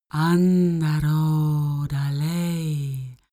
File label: female talk elfish